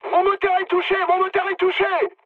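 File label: French damaged engine mood med; War Thunder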